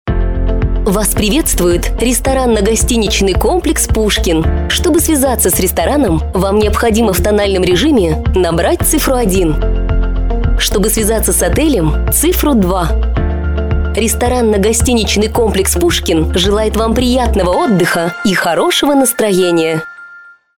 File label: IVR